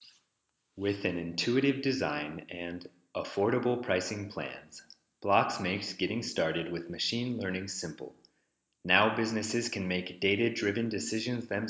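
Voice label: speech sample voice, English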